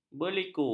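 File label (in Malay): "berliku", Malay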